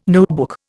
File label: "notebook", Indian